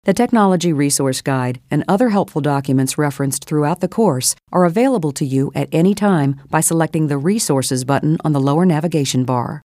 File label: informative